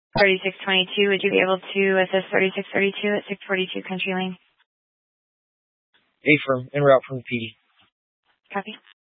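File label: Police 1 Dispatch, Scanner audio recording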